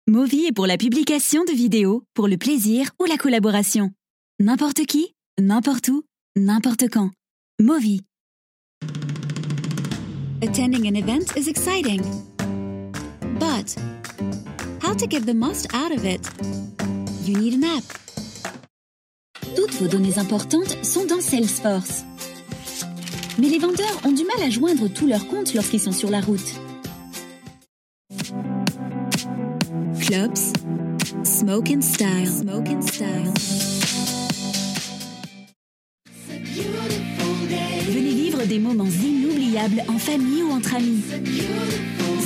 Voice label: DEMO VOICE FRENCHIE